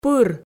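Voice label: "пыр", Komi, female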